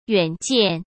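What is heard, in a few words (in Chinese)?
"远见", Mandarin Chinese; chinese flashcards; hsk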